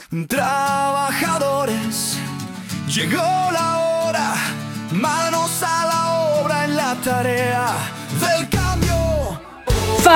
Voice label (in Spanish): Locución; FA Genérico 20 seg